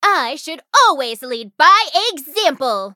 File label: menu tap eng; cream soda; cookie run